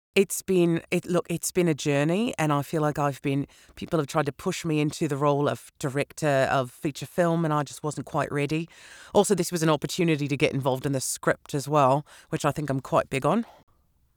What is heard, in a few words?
New Zealand Accent